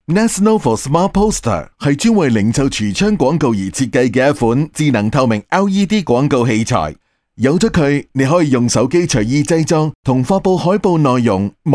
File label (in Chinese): 男粤 多媒体 产品演示 港式男声; 粤语